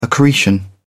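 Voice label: "accretion", British English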